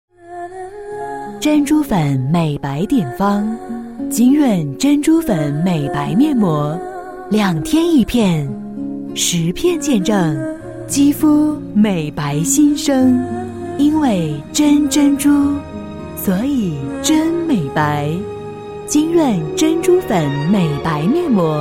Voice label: 女 广告 深情化妆品, 国语女声配音

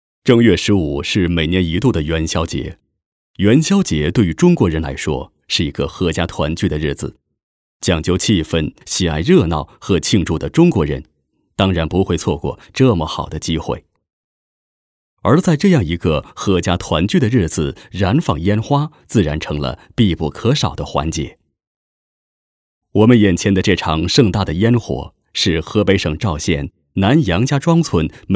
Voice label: 男 电视栏目 文化节目 非遗 干声, 国语男声配音; 文化节目河北非遗五道

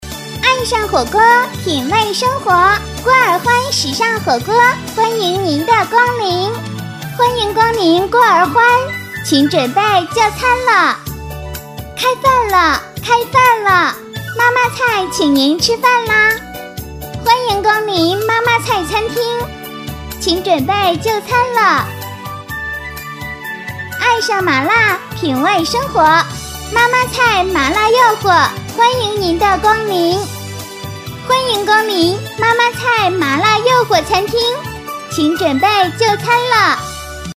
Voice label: 广告促销 可爱女童 时尚火锅语音; 国语女声配音

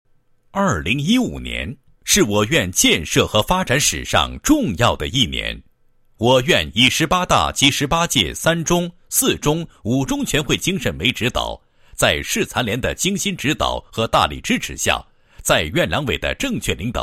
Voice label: 男 医院 康复医院 工作汇报; 市康复医院2015年工作汇报

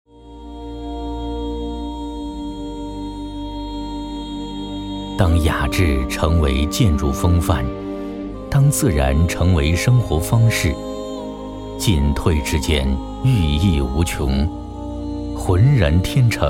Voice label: 男 宣传片 房产; 下花园

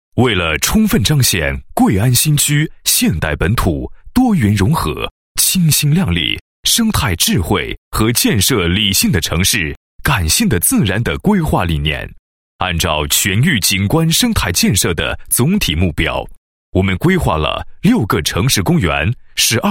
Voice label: 男 工作汇报, 配音; 全域景观建设工作情况

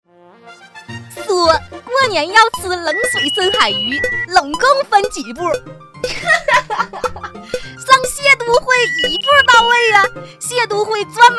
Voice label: 女 模仿 东北; 蟹都汇; 宋丹丹版

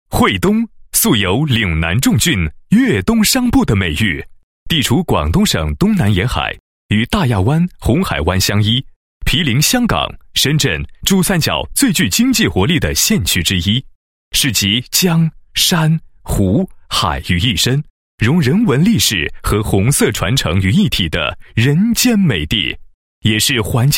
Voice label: 男 解说专题; 魏书生惠东自学自育实验区大课间展示

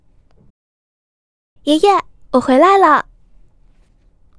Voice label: 女 角色 女学生

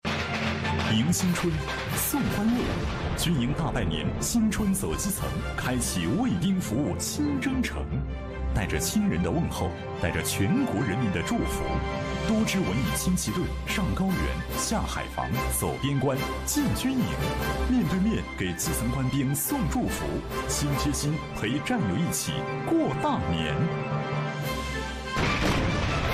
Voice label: 男; CCTV1军营大拜年

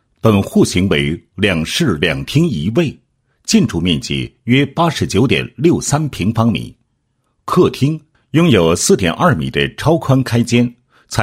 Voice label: 男 房地产专题, 地产样板间简介

